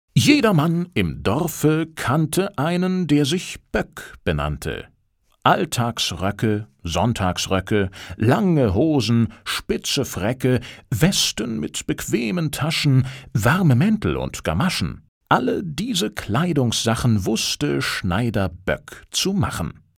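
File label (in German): Hörbuch; Muttersprache Deutsch; max und moritz; Sprecher in Stuttgart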